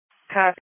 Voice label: Police Patrol 1 (Dispatch)